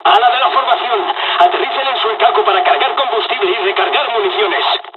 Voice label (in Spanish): dialogs spanish; War Thunder